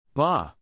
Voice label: "baa", English, neural; animal sounds in english; goats and sheep; Stephen